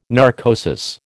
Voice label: "narcosis", American